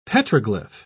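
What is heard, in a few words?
"petroglyph", English, British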